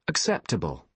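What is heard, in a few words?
"acceptable", British English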